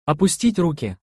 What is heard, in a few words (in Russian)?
опустить руки (opustit’ ruki), Russian; to lower one’s arms